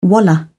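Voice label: "wallah", British English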